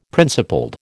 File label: "principled", American